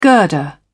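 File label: "girder", English, British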